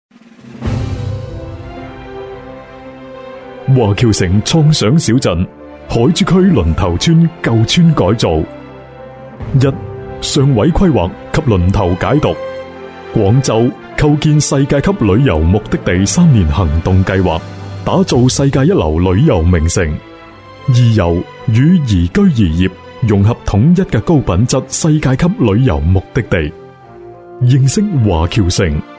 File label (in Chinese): 男 粤语配音宣传片 磁性介绍; 华侨城创想小镇; 成熟稳重